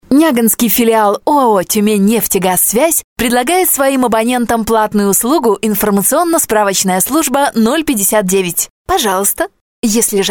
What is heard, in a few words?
russian